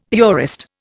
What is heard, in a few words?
"purist", Indian; Dictionary